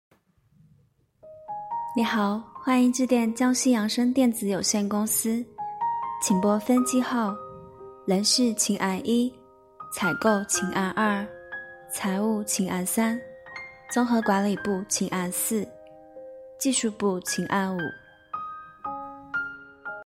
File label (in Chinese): 公司座机彩铃